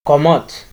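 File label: "comot", Nigerian Pidgin, male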